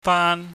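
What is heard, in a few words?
"faan", Cantonese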